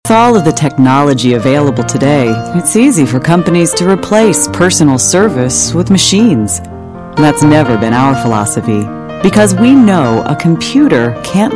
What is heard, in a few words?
american advert; english course; AudioEnglish